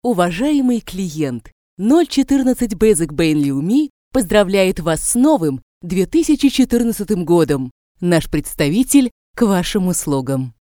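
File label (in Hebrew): Russian, קריינות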